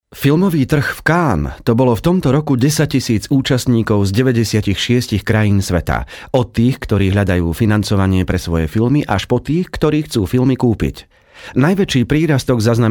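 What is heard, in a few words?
Slovak, male, narration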